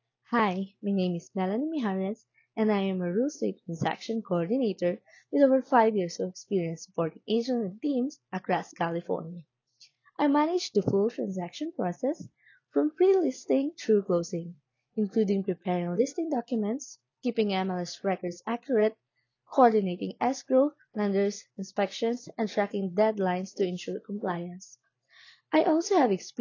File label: Voice Recording, Self Introduction